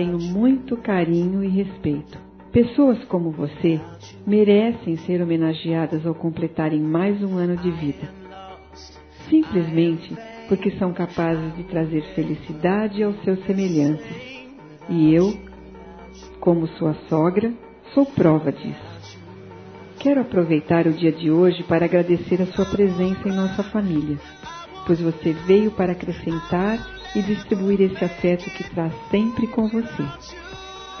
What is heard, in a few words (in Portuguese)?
Genro Nora F, Telemensagens Aniversário; Aniv Familiar